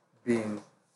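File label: "bin", Nigerian Pidgin, male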